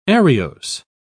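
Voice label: "ariose", British English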